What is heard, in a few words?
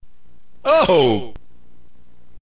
narrator